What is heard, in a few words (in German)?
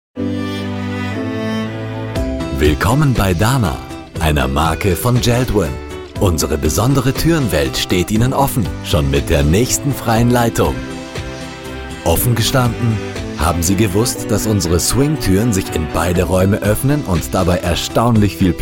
Telefon werblich